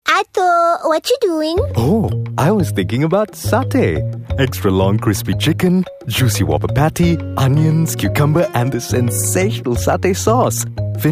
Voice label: ENG; Burger King Satay